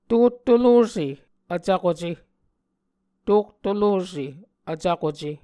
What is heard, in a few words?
"tottolose vcvkuce", Muscogee